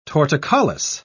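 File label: "torticollis", English, British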